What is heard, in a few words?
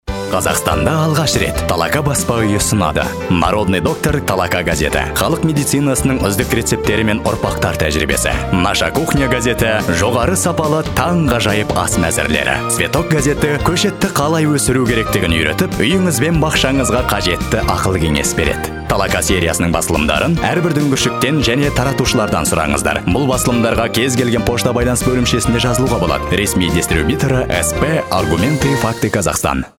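kazakh male commercial; voice demos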